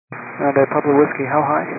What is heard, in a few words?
122 725MHz, scanner radio